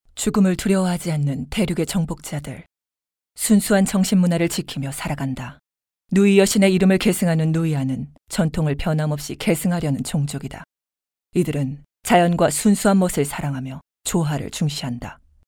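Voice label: Korean, female, narration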